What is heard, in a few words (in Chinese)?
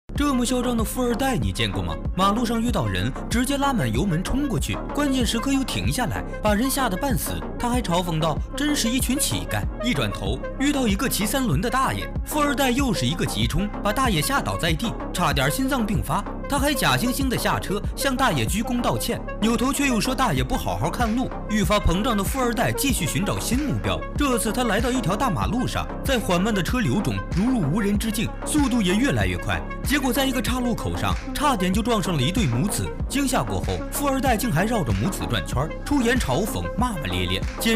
解说影视